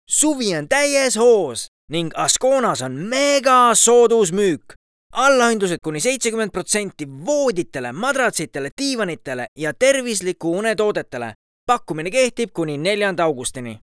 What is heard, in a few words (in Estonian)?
Eesti audioreklaam, meeshaaled; askona